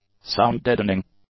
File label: "sound-deadening", english; adjectives